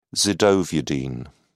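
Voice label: "zidovudine", British English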